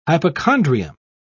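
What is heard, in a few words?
"hypochondrium", British English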